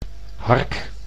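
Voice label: "hark", Dutch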